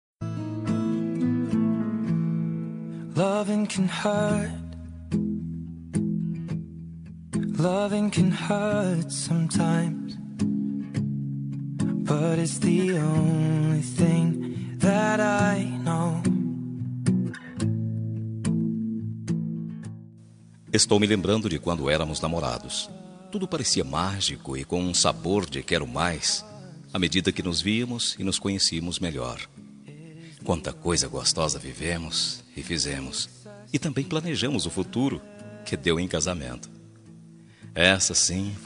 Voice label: esposa, voz masculina; Dia dos Namorados; para esposa

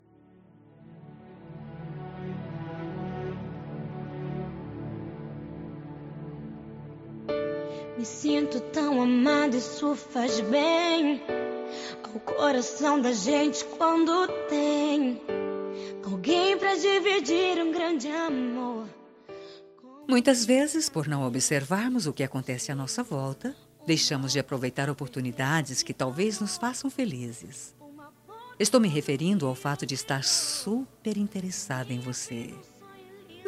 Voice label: gospel fem

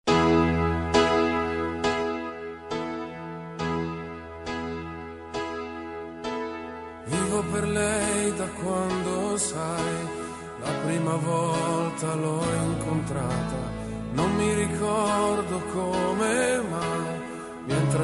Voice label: mae como pai fem, voz feminina; Dia dos Pais; para mae que e pai

